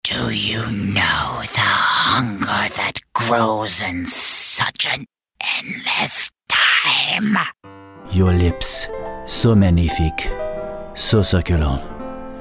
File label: Character